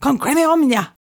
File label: rushed, incantations older female fire spells; psfx